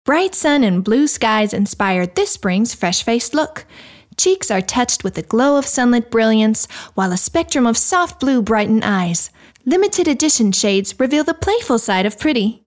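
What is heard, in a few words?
US English Werbung Freundlich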